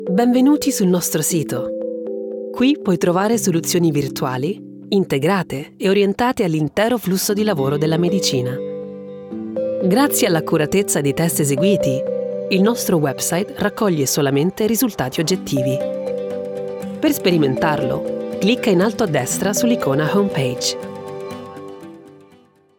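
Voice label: Italian, female, elearning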